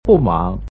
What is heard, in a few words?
"bumang", Chinese